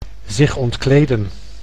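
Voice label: "zich ontkleden", Dutch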